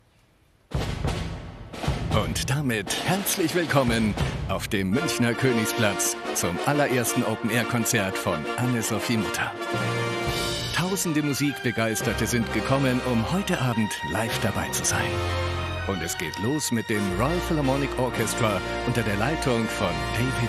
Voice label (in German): Livekommentar; ZDF; Festspielsommer; Anne-Sophie Mutter spielt Filmmusik